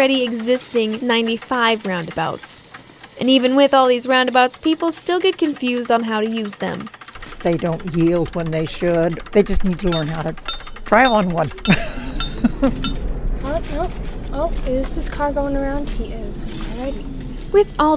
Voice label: CONSTRUCTION PSA

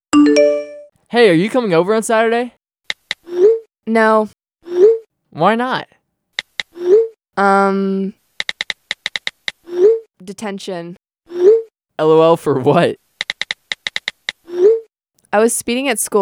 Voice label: PSA

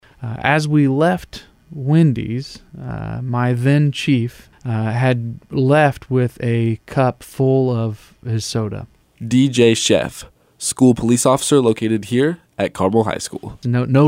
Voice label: DJ

